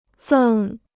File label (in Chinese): "赠", Chinese